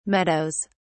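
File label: "meadows", British English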